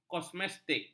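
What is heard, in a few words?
"kosmetik", Malay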